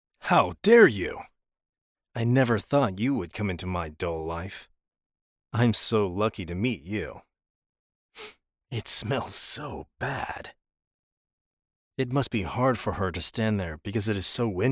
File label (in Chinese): 英语成熟男角色